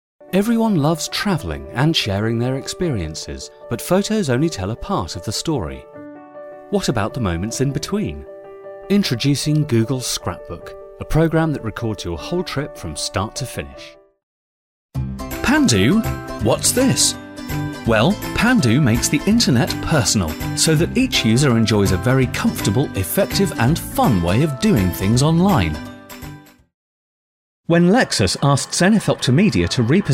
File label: corporate